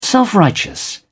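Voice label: "self righteous", British English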